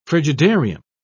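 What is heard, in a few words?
"frigidarium", British English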